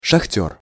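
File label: "sahter", Russian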